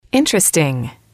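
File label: "interesting", English, female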